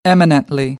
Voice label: "eminently", American; ə - ɪ